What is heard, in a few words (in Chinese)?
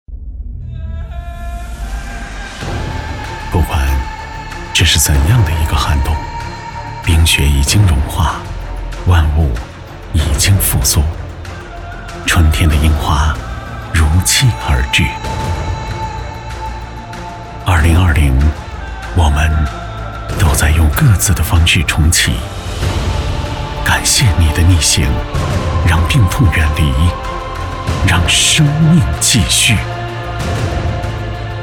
男; 感谢你的逆行